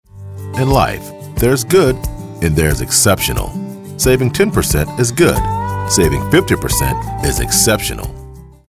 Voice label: Friendly

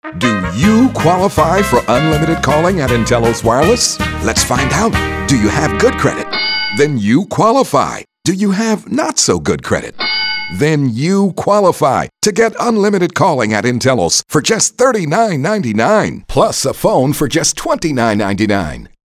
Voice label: upbeat

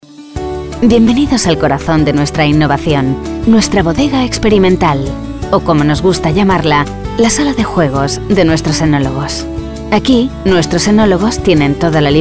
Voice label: Winery - Spanish

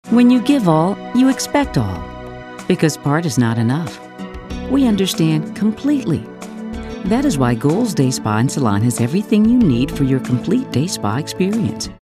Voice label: Smooth

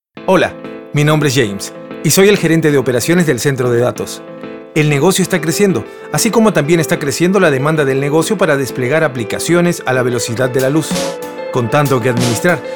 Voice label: Spanish Testimonial Ad

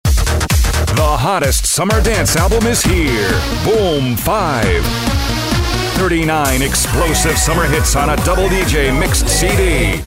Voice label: hard sell

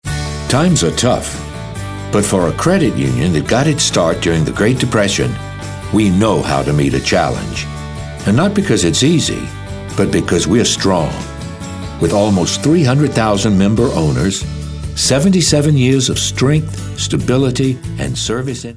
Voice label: authoritative

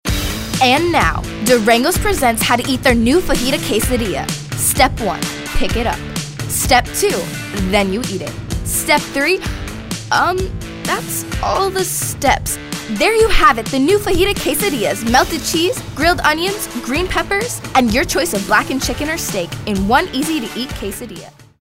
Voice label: high energy

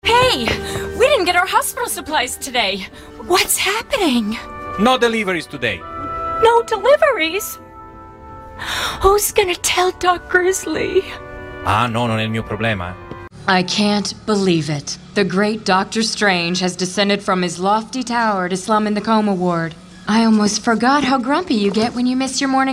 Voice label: Animation; Animation Reel

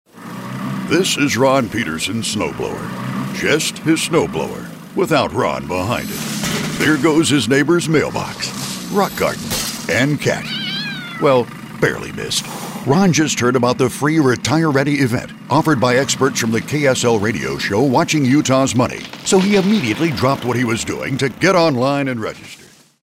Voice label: humorous

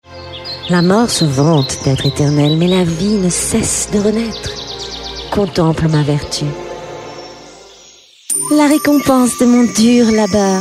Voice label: Showcase (French)

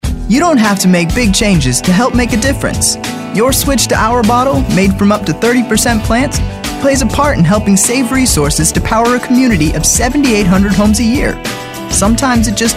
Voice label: upbeat

